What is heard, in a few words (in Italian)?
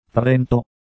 "Trento", ita